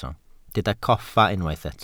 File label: "coffa", Welsh